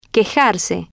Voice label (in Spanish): "quejarse", Spanish